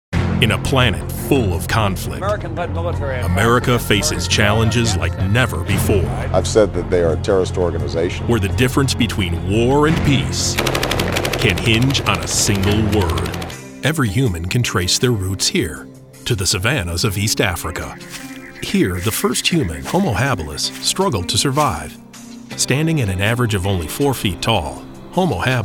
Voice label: men narration

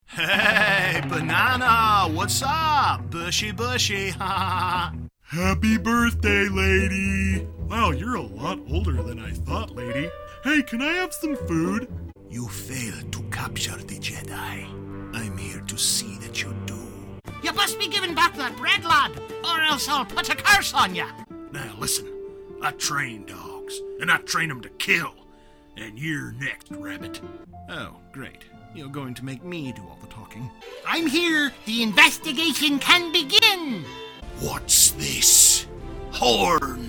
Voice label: men animation; Cool, friendly non-announcer announcer. Various styles: Edgy, sophisticated, wry, everyman, friendly.

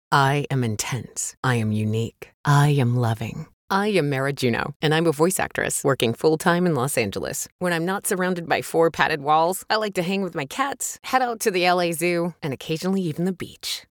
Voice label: women mini demo

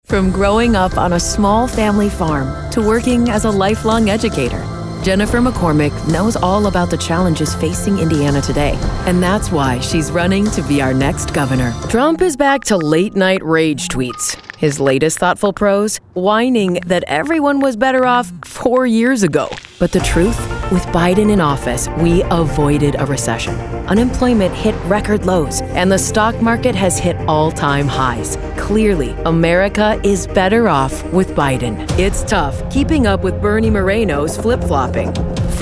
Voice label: women political; Warm and authentic, wry and compelling, authoritative and connecting, sultry and emotional.

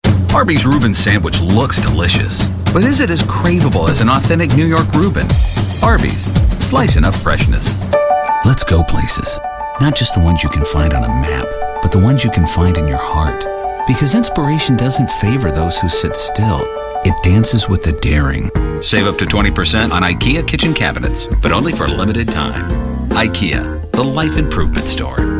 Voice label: men, commercial

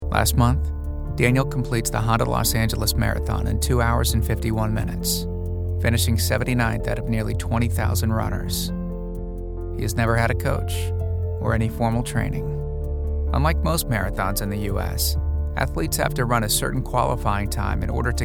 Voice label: men narration

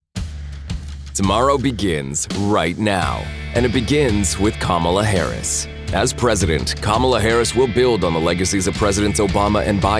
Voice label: men political

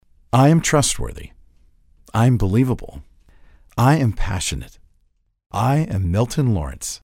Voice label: men mini demo

